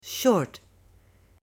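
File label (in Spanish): "SHORT", Spanish, female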